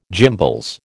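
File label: "gimbals", American English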